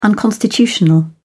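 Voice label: "unconstitutional", British English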